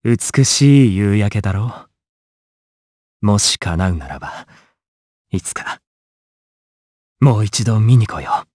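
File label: voice Story1, voices heroes, Japanese; Siegfried; kingsraid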